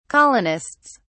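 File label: "colonists", British English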